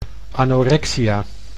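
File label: "anorexia", Dutch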